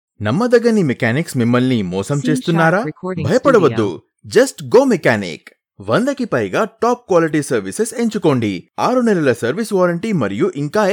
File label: Telugu Male